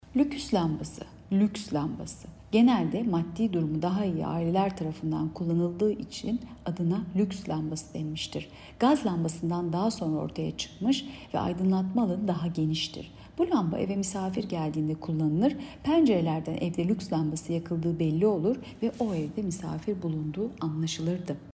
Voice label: turkce